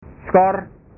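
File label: "skor", Indonesian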